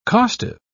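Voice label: "costive", British English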